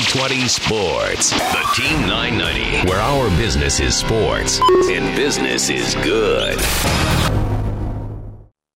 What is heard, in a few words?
news talk radio